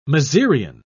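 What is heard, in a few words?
"mezereon", British English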